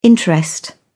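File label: "interest", English, British English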